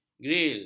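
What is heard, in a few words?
"gril", Malay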